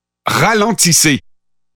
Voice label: Pathfinder French Canadian